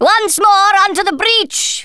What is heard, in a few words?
"bungee", Thespian; Worms Armageddon